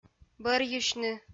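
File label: "бӧрйысьны", Komi, female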